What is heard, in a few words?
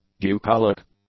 "bucolic", English; adjectives